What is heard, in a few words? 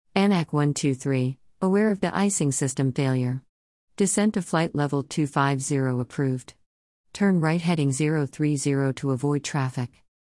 ATC Belly landing